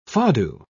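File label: "fado", British English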